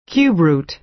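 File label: "cube root", American English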